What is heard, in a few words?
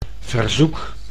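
"verzoek", Dutch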